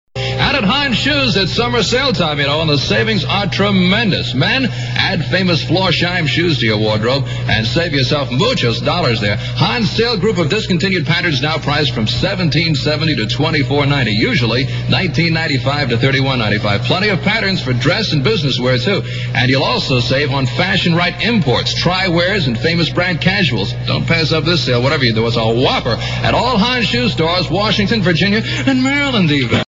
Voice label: spot; hahns shoes

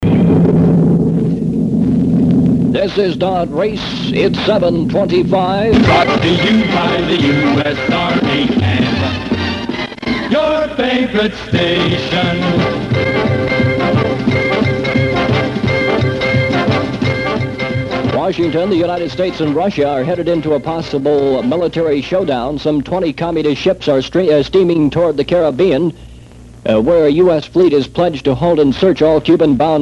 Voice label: news; 1962; cuban missile crisis

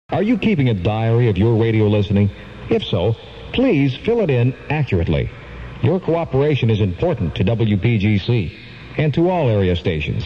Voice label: arbitron announcement

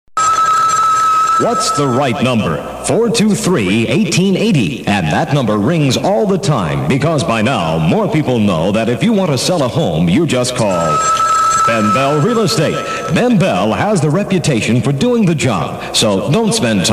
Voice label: spot; ben bell real estate

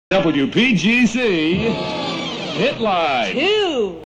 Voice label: sweeper hitline

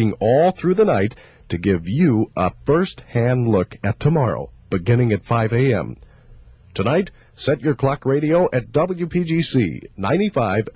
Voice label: snow emergency announcements

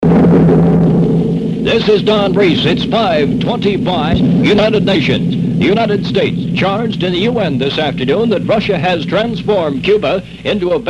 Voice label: news; 1962; cuban missile crisis

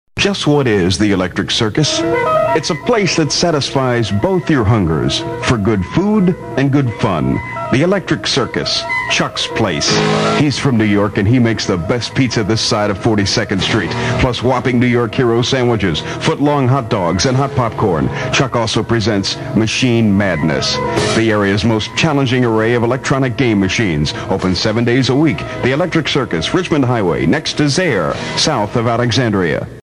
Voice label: spot; electric circus

